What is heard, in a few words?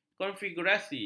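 "konfigurasi", Malay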